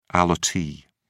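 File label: "allottee", British English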